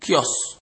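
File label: "kiosk", Nigerian Pidgin, male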